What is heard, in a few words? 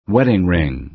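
"wedding ring", American English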